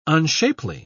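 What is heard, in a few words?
"unshapely", British English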